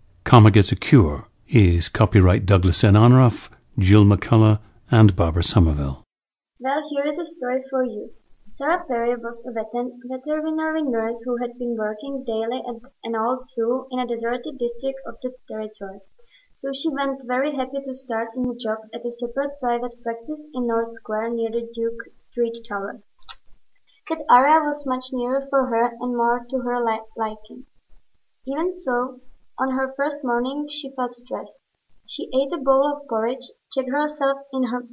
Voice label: czech